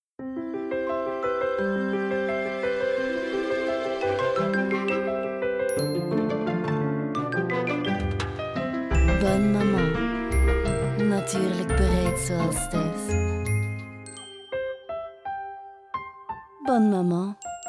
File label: Flamand; Bonne maman